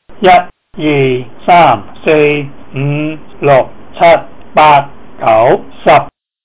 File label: cantonese numbers